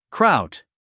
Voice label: "kraut", US English, male; everyone-can-use-english